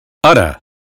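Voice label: American phonetics sound cutting; 英语音标发音（剑桥版）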